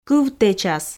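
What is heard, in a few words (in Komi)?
"кывтэчас", Komi, female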